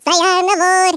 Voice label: "victory", Norwegian; Worms Armageddon

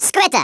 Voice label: "FATALITY", Africaan, Speech; Worms Armageddon

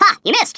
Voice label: "MISSED", Stiff Upper Lip

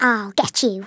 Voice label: I'll get you, Scouser

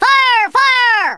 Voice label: "fireball", Drill Sergeant; Worms Armageddon

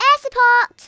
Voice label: orders, Speech Kidz; Worms Armageddon

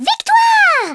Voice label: "victory", French; Worms Armageddon

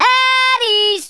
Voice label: bye bye, Drill Sergeant; Worms Armageddon

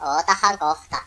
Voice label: just you wait, Finnish; Worms Armageddon

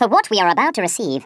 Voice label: "drop", Stiff Upper Lip

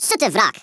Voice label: "REVENGE", Africaan, Speech; Worms Armageddon

